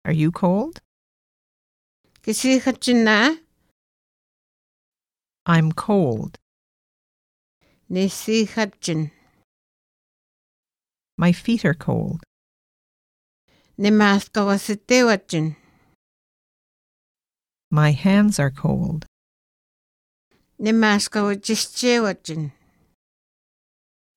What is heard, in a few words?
Swampy Cree comforts discomforts; Linguistic Atlas